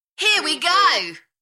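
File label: British female Here we go Enthusiastic; beanstalk audio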